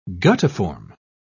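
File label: "guttiform", British English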